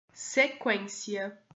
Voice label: "sequencia", Portuguese